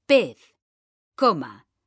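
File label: "pez", Spanish, female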